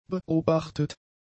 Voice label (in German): "beobachtet", German; adjectives